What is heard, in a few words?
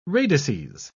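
"radices", British English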